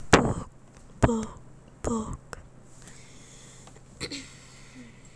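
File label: "book", spoken word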